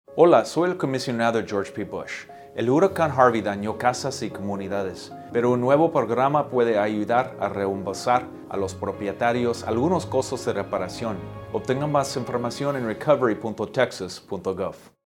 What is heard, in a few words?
spanish